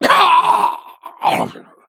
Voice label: Male Arakkoa Death

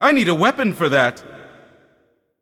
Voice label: Male Void Elf Emote

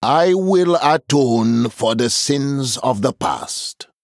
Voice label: Male Troll Picked; Brukan

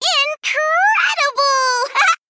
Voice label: Female Gnome Wow

